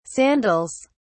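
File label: "sandals", British English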